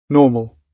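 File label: "normal", British English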